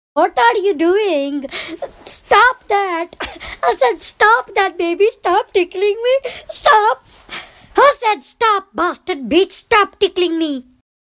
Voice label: Indian Girl Stop Tickling Me; Tik Tok